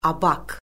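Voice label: "abak", Azerbaijani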